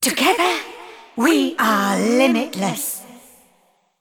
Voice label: female celestial, Bark

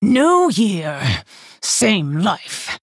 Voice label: Female Worgen, HAPPY NEW YEAR

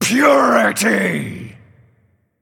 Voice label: Male Angel Attack

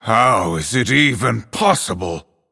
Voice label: Male Night Elf WOW

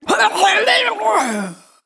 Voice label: Female Jinyu Death

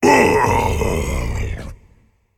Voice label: Male Ancient Death

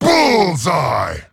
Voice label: Male Satyr Attack

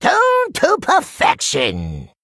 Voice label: Male Goblin Play

